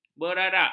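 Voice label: "berarak", Malay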